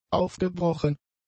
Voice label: "aufgebrochen", German; adjectives